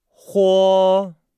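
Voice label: "huo", Chinese; Nihao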